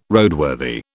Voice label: "Roadworthy", British

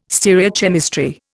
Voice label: "stereochemistry", Indian

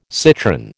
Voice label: "citron", American

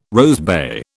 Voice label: "rosebay", British

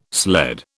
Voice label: "sled", British

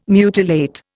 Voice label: "mutilate", Indian

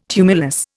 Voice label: "tumulus", Indian

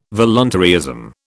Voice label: "voluntaryism", British

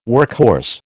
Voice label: "workhorse", American

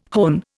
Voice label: "hone", Indian

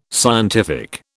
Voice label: "scientific", British

